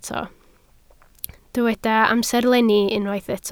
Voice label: "amserlenni", Welsh